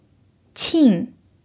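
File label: "qin" fourth tone, chinese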